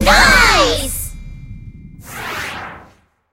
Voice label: voice help friend, en